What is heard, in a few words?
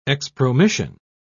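"expromission", British English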